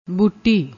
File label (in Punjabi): "booti", Punjabi